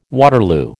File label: "Waterloo", American